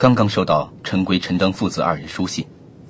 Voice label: chinese male vox; SPOKEN WORD; kongming lu su